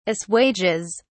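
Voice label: "assuages", British English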